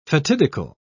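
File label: "fatidical", British English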